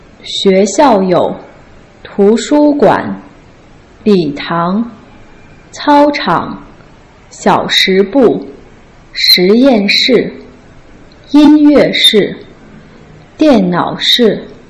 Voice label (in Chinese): xue xiao you tu shu guan li tang cao chang xiao shi bu shi yan shi yin yu, Putonghua